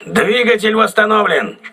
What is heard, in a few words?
voice message engine repaired, crew ships russian